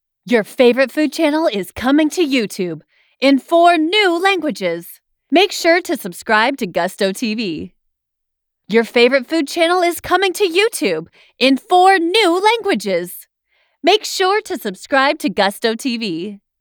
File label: TV promo; Gusto